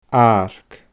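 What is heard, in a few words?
"aarc", Scottish Gaelic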